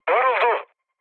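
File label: Turkish aircraft destroyed, mood low, speech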